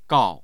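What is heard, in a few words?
"gao", Mandarin Chinese, tone 4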